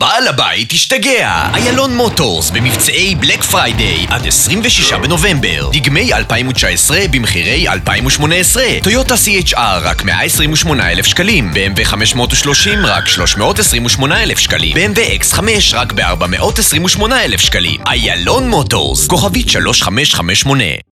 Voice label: hebrew automotive

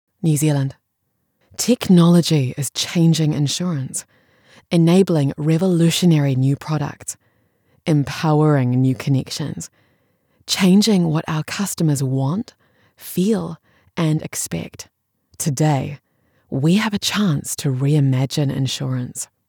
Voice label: english new zealand corporate videos

